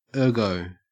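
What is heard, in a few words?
"ergo", Australian English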